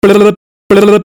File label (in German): bll bll tief